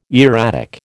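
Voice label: "erratic", American English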